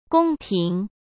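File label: "宫廷", Mandarin Chinese; chinese-flashcards; hsk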